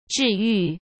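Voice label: "治愈", Mandarin Chinese; chinese flashcards; hsk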